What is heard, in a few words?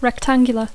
"rectangular", English; Dacco